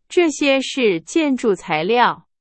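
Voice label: Chinese; hsk4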